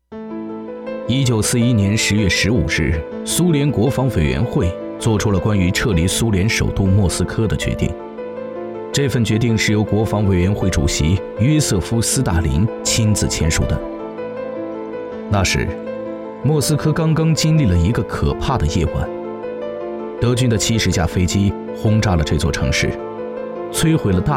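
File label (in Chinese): 国语 男声 专题纪录 历史类纪录片 大气浑厚磁性 沉稳; 决战莫斯科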